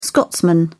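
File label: "scotsman", English, British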